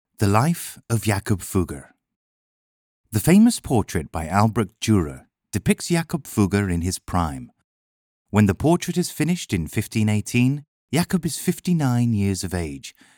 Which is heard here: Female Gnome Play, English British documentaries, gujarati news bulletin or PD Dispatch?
English British documentaries